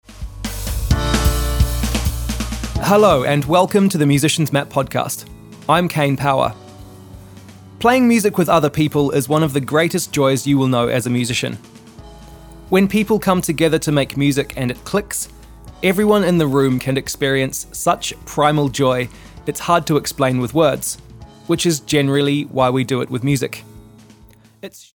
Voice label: Podcast Sample